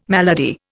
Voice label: "malady", Indian

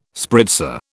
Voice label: "spritzer", British

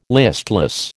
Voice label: "listless", American

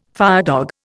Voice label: "firedog", Indian

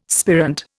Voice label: "spirant", Indian

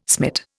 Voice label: "smit", Indian

